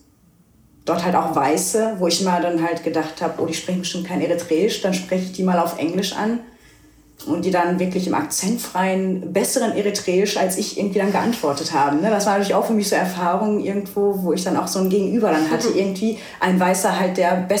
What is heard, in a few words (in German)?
tigrinya eritrea